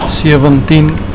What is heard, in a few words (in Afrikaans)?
"sewentien", Afrikaans